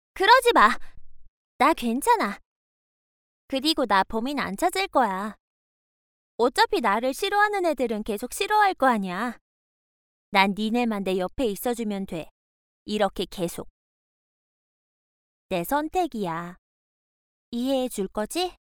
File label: foreigner